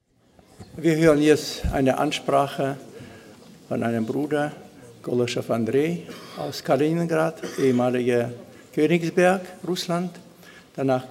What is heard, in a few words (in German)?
Ansage